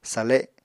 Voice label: "sale", Apatani